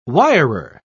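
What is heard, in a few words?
"wirer", English, British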